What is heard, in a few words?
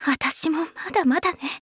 swordwoman lose